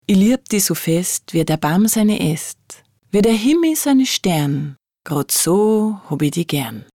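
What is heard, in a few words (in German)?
wolke dialekt bay, Bavarian dialect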